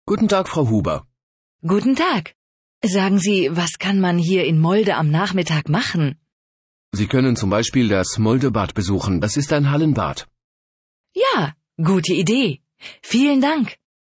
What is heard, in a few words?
dialog